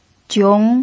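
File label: "jiong" second tone, pinyin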